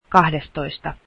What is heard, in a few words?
twelfth, finnish